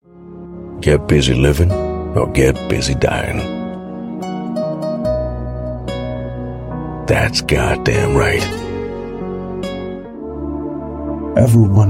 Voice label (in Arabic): Arabic